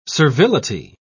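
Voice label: "servility", British English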